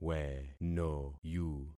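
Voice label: "wenoyu", concatenated syllables; Affixing experiment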